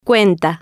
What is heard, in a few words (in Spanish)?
"cuenta", spanish